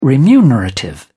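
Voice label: "remunerative", English, British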